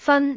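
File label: "fan1", Cantonese; microsoftHiuGaai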